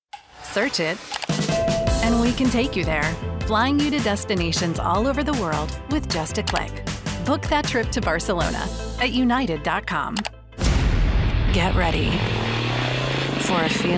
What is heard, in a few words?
Commercial VO